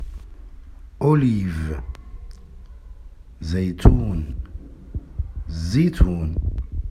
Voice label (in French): Olive arabe